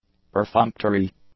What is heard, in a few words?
"perfunctory", english; adjectives